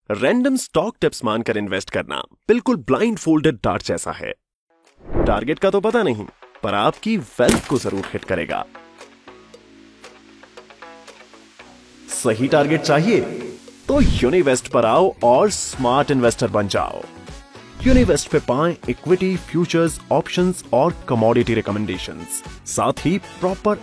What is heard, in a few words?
hindi ad finance